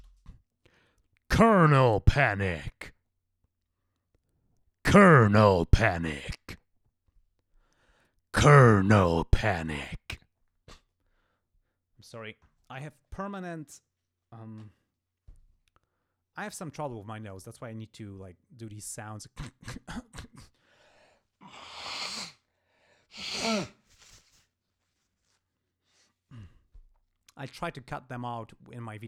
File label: Announcer